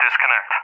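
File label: "disconnect", English speech, Tanker; Messages; DCS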